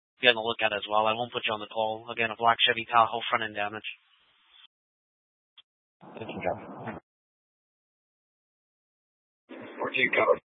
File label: Mesa PD Dispatch